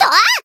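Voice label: attack, Japanese; may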